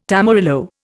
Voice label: "tamarillo", Indian